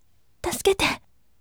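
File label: voice, gentle; tasukete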